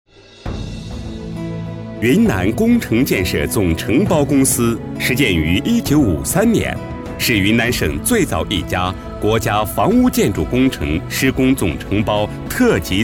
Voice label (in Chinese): 男 专题-工程建设-喷泉解说; 龙泽湖